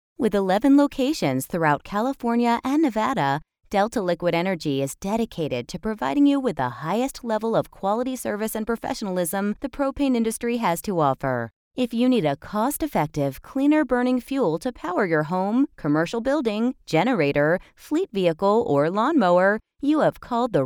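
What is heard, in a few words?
interactive voice response Sample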